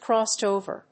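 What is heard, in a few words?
"Crossed over", English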